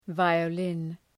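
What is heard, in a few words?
"violin", pronunciation; {,vaıə’lın}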